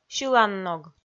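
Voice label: "сьыланног", Komi, female; audio dict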